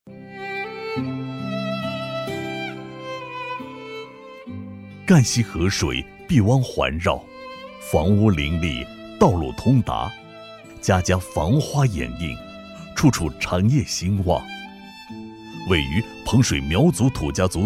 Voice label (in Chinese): 男, 景区宣传, 大气抒情; 硬朗力度; 质感磁性; 彭水镇